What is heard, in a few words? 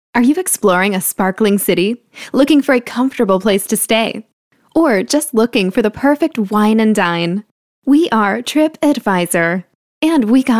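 female English American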